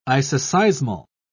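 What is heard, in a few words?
"isoseismal", British English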